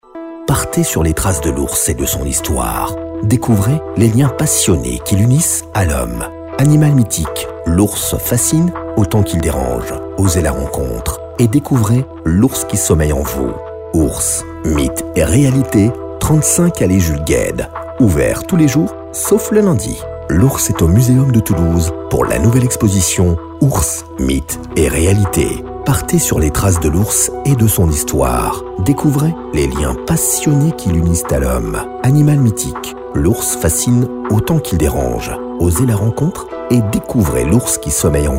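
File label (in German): französischer Sprecher; Französischer Werbesprecher; french voice-over talent male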